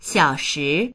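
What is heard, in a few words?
"xiao3shi2", Mandarin Chinese; 小时; ～時間（時間の長さ）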